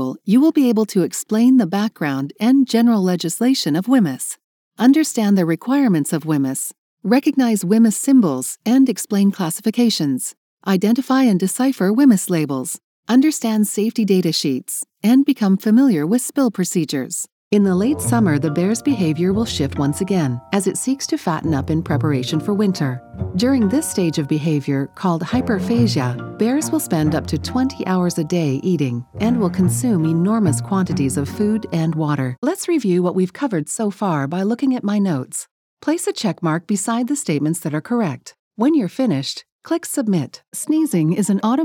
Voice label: eLearning and Corporate Training VO